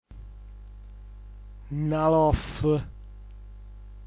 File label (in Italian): "naloff", dialetto giulianova